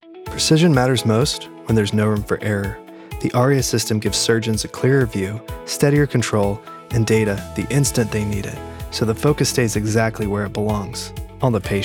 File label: commercial medical device; b2b credible calm